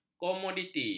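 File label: "komoditi", Malay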